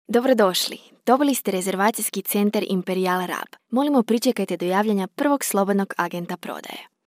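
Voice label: Croatian, IVR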